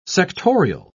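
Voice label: "sectorial", British English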